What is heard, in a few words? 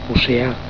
"ocea", catalan